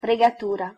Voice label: "fregatura" pronunciation it

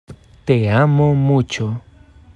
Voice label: "te amo mucho", es

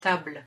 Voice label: "table", fr

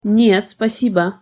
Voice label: "нет спасибо", ru

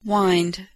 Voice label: "wind", verb

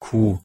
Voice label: "q" pronunciation de

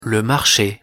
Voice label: "le marche", fr

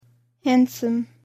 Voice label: "handsome", en

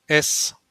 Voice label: "s" pronunciation de

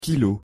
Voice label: "kilo", fr

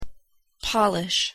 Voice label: "polish" noun verb

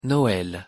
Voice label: "noel", fr

